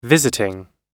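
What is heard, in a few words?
"visiting", American English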